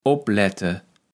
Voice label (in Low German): "oplette", kaevels platt